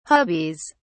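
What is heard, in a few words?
"hubbies", English, uk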